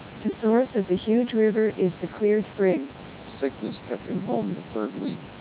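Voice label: American, speech, SNR=10dB, CODEC2 3200 bps; NCSE; NCSE Disabled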